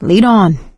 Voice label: lead on, survivor voice; source engine; left 4 dead 2; producer